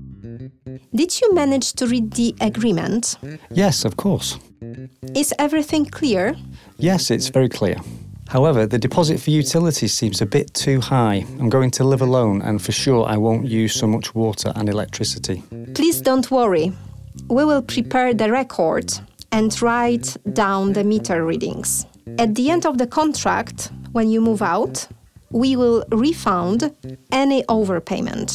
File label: Dialog